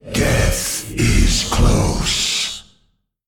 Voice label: Male Old God Attack

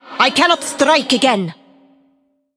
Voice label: Female Emote ERROR I ATTACKED; Iron Vrykul

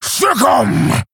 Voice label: Male Orc Attack

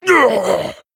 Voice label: Male Troll Death

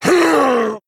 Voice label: Male Quillboar Death

